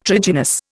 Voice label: "trigynous", Indian

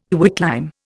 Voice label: "quicklime", Indian

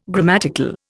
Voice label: "grammatical", Indian

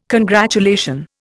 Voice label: "congratulation", Indian

